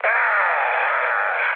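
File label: Italian damaged pilot mood high, speech; War Thunder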